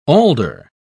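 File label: "alder", British English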